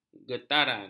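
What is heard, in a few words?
"getaran", Malay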